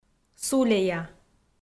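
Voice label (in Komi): "сулея", Komi, female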